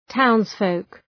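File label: "townsfolk", English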